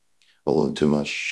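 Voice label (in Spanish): Voice Acting, Spanish, male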